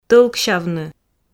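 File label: "тӧлксявны", Komi, female; audio dict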